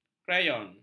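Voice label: "krayon", Malay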